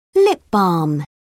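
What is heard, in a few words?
"lip balm", British English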